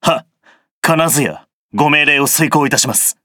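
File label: start, Japanese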